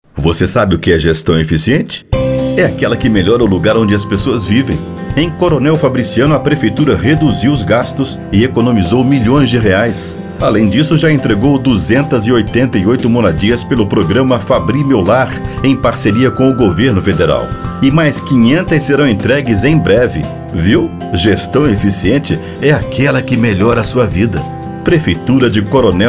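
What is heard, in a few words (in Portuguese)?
Voz Padrão - Grave, Masculino, Português - Brasileiro; Pref. Cel Fabriciano - Estudio HP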